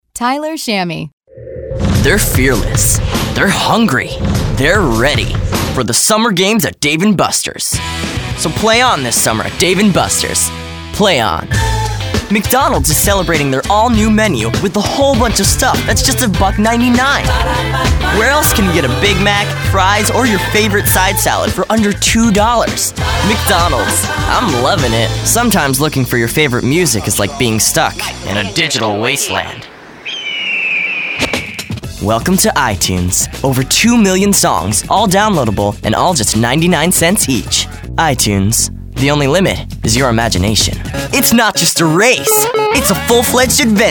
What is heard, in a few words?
M Commercial